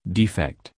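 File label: "defect", English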